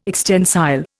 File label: "extensile", Indian